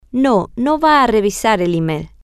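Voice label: No she is not going to revise the email, Spanish, female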